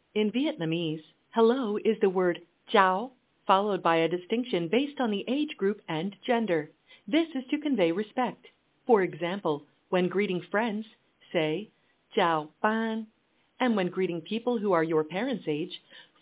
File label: Vietnamese, hello